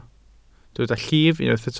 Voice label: "llif", Welsh

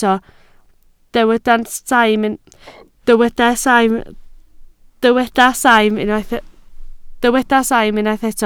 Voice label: "saim", Welsh

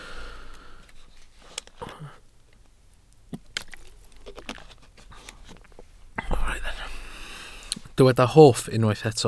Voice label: "hoff", Welsh

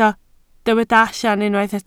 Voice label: "allan", Welsh

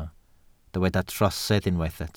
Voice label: "trosedd", Welsh

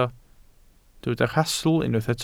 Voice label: "rhastl" Welsh

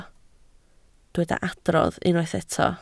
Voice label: "adrodd", Welsh

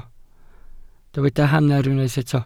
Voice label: "hanner", Welsh

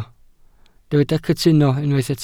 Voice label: "cytuno", Welsh

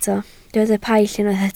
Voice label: "paill" Welsh

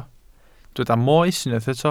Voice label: "moes" Welsh

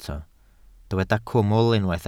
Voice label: "cwmwl" Welsh

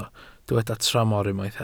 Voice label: "tramor", Welsh

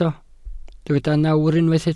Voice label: "nawr", Welsh

